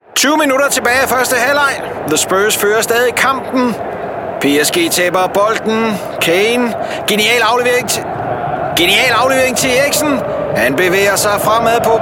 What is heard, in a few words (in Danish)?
Dansk manlig sportkommentator